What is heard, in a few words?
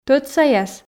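"тӧдсаяс", Komi, female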